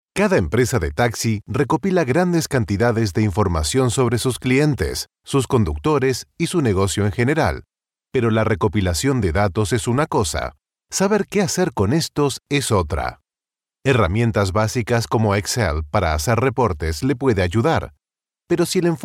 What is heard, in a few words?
Spanish male, web explainer, Warm Friendly Latin Spanish American Voiceover